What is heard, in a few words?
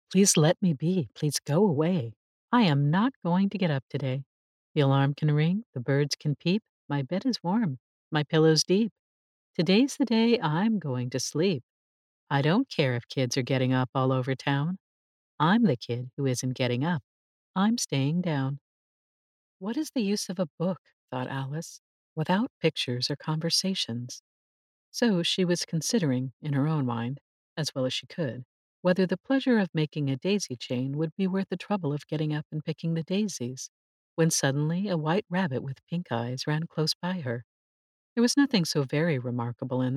Children